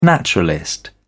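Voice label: "naturalist", British English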